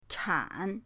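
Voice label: "chan3", Mandarin Chinese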